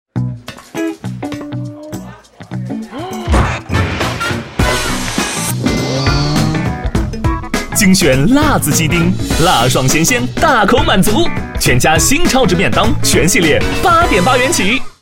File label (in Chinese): 男 广告 男生; 全家西南全国